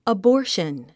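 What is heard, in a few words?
"abortion", English word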